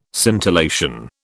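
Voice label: "scintillation", British English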